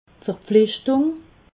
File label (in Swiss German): "verpflichtung", alsace